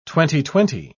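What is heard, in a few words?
"twenty-twenty", English, British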